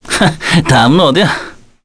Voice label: Victory, kr; Mitra; kingsraid